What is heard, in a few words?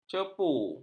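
"cepu", Malay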